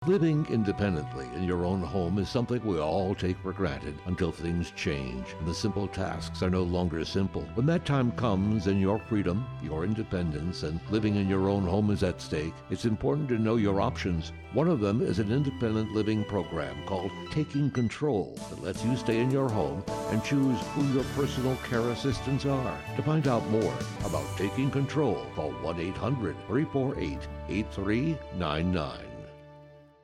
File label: radio